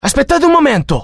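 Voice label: curious, Italian; mohaa; dialogue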